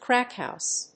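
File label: "crack house", English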